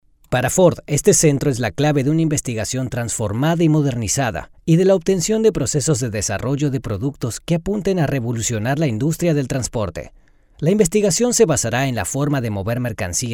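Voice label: corporativo, Mexican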